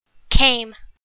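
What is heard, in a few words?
"came", English word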